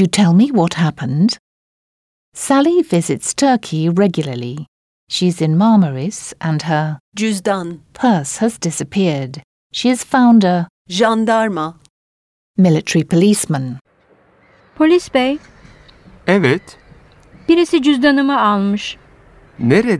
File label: Dialogue, Turkish